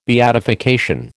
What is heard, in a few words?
"beatification", American